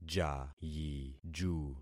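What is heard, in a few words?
"jayiju", concatenated syllables; Affixing experiment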